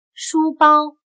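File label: "书包", Chinese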